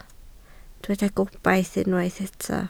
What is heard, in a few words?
"gobaith", Welsh